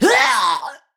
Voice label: Death Ranged, Battle Barks; Tau; Tau Dax